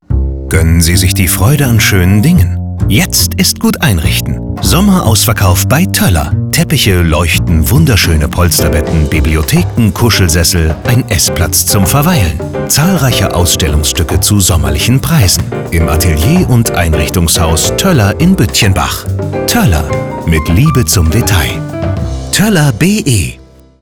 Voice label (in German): Funkspot